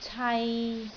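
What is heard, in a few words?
"cai3", Cantonese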